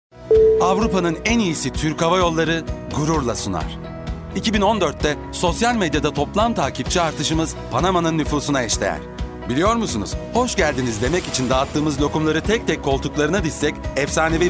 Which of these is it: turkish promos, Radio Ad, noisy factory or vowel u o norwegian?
turkish promos